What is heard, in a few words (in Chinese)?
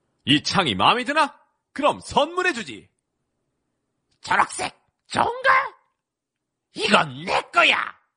韩语男声 游戏样音